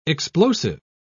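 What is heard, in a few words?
"explosive", English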